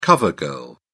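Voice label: "cover girl", British English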